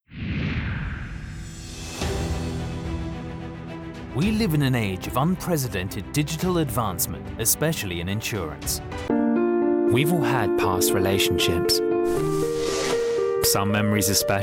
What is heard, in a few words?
british